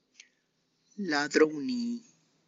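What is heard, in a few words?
tlacochahuaya, Zapotec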